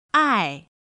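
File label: "ài", Chinese; pinyin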